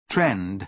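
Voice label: "trend", British English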